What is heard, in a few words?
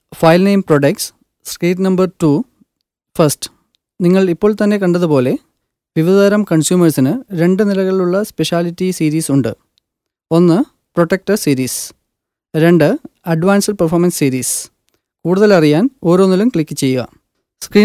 Male Malayalam VO